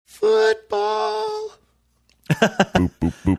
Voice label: boop boop boop